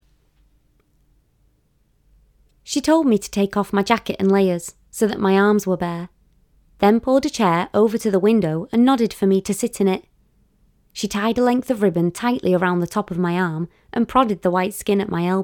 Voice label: Audio Book